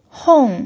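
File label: "hong" fourth tone, pinyin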